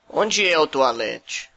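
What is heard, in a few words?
toilet, Brazilian Portuguese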